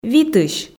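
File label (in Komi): "витысь", Komi, female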